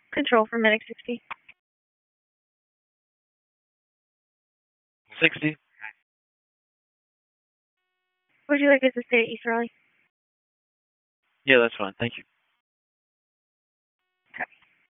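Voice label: Wake County EMS Dispatch, p25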